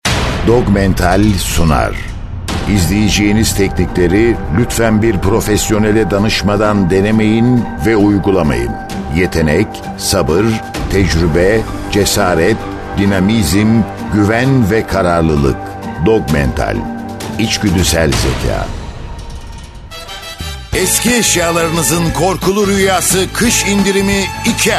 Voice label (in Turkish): reklam, seslendirme; Seslendirme Ajansı; BiberSA Prodüksiyon